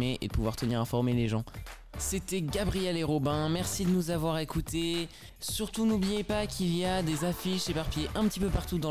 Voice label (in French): Radio Pub